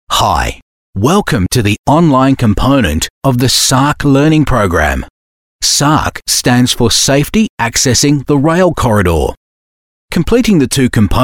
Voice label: male talent corporate